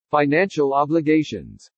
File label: "financial obligations", english